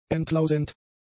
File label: "entlausend", German; adjectives